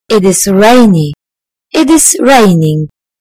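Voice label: "rainy", British English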